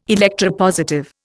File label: "electropositive", Indian